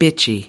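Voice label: "bitchy", American English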